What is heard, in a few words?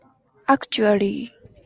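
"actually", Japanese, female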